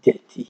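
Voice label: "dirty", Nigerian Pidgin, male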